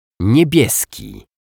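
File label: "niebieski", Polish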